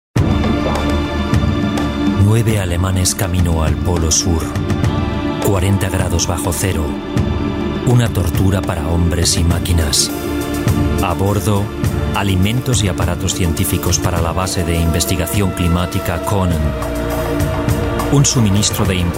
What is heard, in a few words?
es